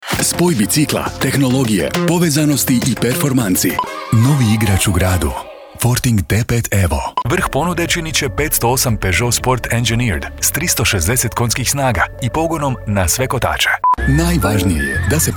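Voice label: Croatian, male, Commercial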